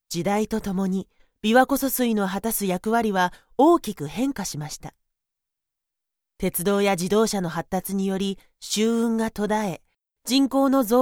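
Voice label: 3rd exhibition room japanese; Voice guidance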